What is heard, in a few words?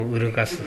"urugasu", minamiyamagata